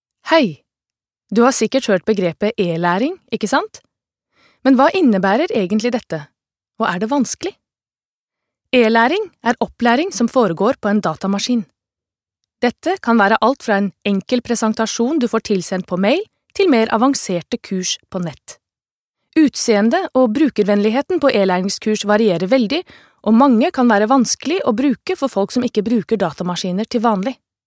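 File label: Norwegian elearning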